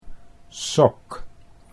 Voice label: "sok", Dutch

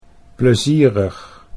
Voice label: "plezierig", Dutch; 'pleasant'

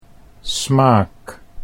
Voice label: "smaak", Dutch

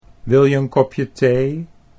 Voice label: "wil je een kopje thee", Dutch

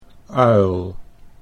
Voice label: "uil", Dutch

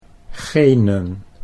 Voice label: "genen", Dutch

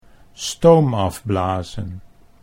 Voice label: "stoom afblazen", Dutch

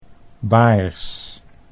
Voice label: "baars", Dutch

